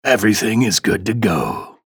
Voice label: chatter positive; ranger; frag

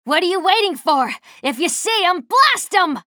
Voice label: chatter neutral; slash

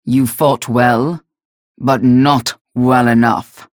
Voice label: vo match end victory; galena; unholy paladin; frag

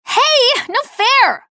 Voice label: chatter negative; slash; respawn

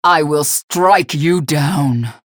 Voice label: unholy paladin taunt; galena; respawn

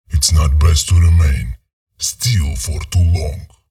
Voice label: chatter neutral, en; visor

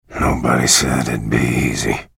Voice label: chatter negative; bj blazkowicz; respawn

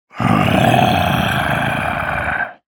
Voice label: menu chatter; ranger

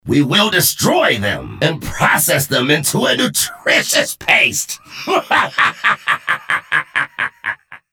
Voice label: chatter negative; strogg infiltrator

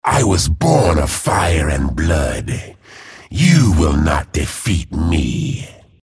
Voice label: chatter neutral

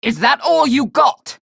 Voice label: chatter negative; galena; respawn

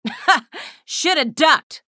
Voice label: chatter positive; athena; frag

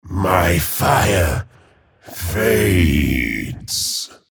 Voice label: chatter negative; respawn

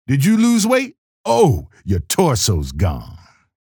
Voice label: chatter positive; keel; frag

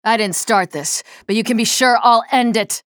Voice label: chatter positive; nyx; frag

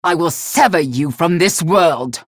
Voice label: chatter positive; galena; unholy paladin; frag

